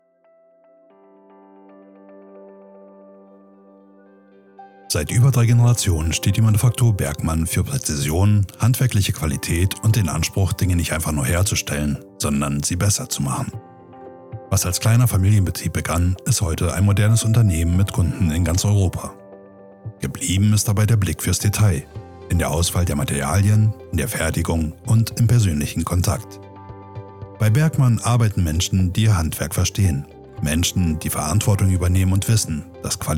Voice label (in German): Imagefilm